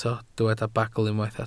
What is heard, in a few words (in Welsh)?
"bagl", Welsh